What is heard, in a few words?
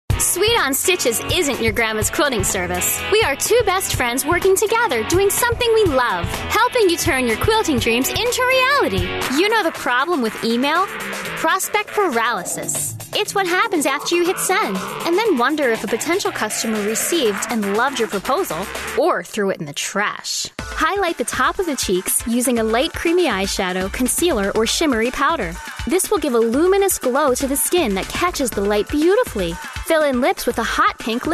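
English, US, female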